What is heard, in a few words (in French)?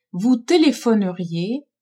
"vous telephoneriez", French